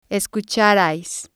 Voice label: "escucharais", Spanish